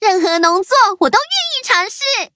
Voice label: levelup zh-hant; cookie run